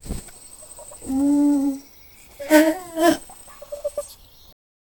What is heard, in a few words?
soft spoken let her stay